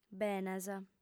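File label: "benas", female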